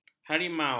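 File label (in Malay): "harimau", Malay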